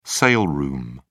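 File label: "sale room", British English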